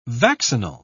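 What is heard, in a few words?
"vaccinal", British English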